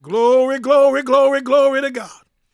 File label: PREACHIN, Gospel, PREACHER